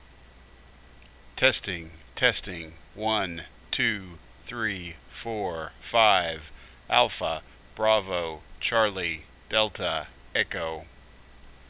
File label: mic audio test 8k; freedv